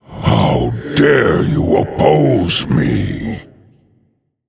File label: Male Undead Emote Hero Greetings